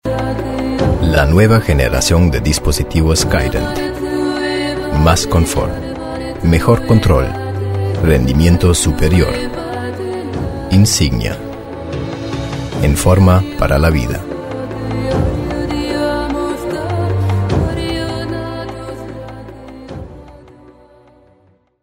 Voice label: spanish latin american automotive; professioneller zweisprachiger Sprecher